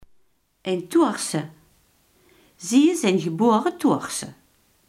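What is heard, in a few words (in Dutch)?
iemand die in thorn woont, Limburgse gesproken woordenboeken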